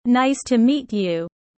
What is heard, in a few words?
low; studying abroad